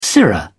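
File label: "sirrah", British English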